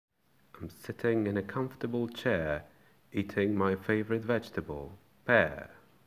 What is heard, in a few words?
poem